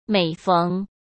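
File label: "每逢", Mandarin Chinese; chinese-flashcards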